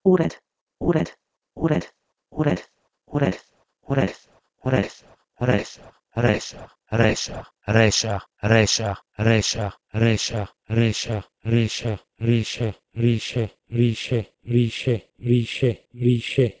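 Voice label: Proto-Indo-European ured to Persian risheh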